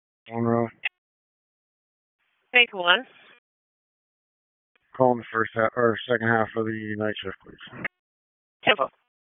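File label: Police Southwest District Dispatch; Raleigh; wake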